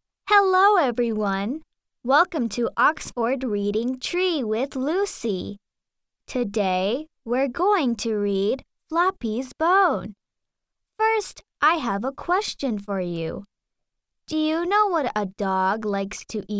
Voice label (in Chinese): 外籍女英 外语 美式英语 儿童故事童声版本